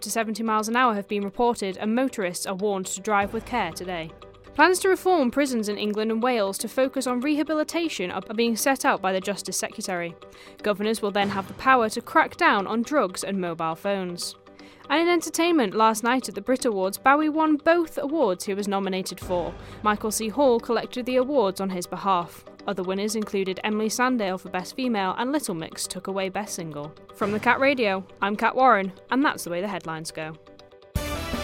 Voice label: 10am news; cat radio